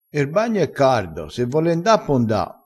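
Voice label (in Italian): "vole", dialettale, crespinese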